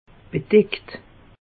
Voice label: "bedeckt", alsace; meteo

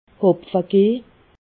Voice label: "hopfegeh", alsace

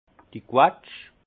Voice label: "quetsch", alsace; vin; prune

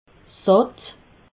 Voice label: "satt", alsace

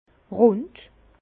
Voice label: "rond", Bas Rhin

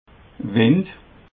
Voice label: "wind", alsace

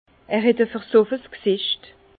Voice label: "versoffe", Bas Rhin

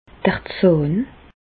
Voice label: "d'r Zàhn", Bas Rhin; medecine; dent

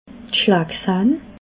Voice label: "schlagsahne", alsace

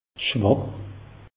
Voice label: "schwach", alsace; meteo

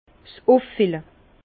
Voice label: "uffille", Bas Rhin; vin; remplissage

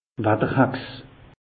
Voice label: "watterhex", alsace; meteo

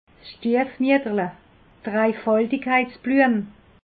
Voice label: "stiefmietterle", alsace

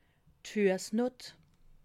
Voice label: "fuessnott", alsace

